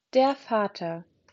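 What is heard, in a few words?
"Vater", German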